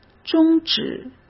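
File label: "终止", Mandarin Chinese; chinese-flashcards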